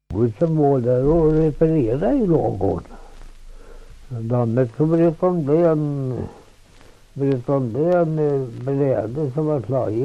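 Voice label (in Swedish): Svärta Södermanland, dialekter; Folkminnen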